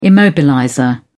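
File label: "immobilizer", British English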